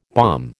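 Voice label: "balm", American English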